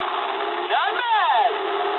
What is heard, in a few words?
eng trick mood low, speech eng; War Thunder